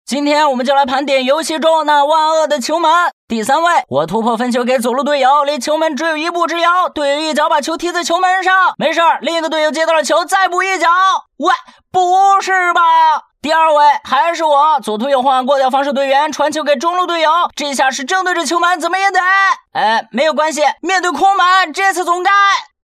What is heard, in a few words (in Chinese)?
男, 游戏解说, 搞笑解说, 声音百变 幽默搞怪; 疯狂的足球